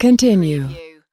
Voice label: US female continue; lynne publishing